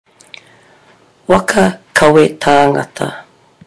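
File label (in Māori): "waka kawe tangata", Māori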